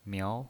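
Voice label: "miao", pinyin voice, Mandarin Chinese, second tone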